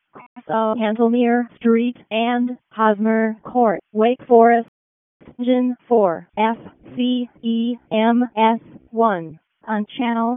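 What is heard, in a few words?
Fire Department Alert, p25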